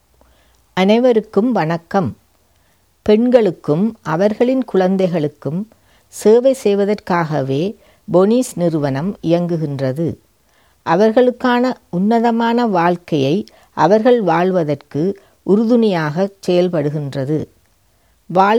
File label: Tamil